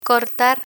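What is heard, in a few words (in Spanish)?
"cortar", Spanish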